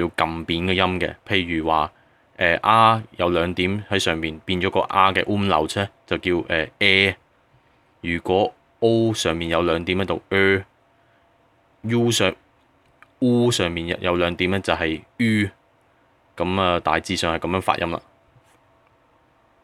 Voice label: German Vowels in lyrics